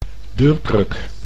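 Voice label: "deurkruk", Dutch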